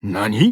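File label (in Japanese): "nani", Japanese; jubei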